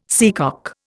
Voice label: "seacock", Indian